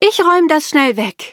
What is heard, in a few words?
skill, de; cookie run